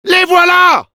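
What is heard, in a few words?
Demoman incoming, French; "Les voilà!"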